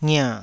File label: "ngia3", taiwanese syllables